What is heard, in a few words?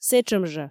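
"сэтшӧм жӧ", Komi, female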